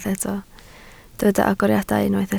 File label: "agoriadau", Welsh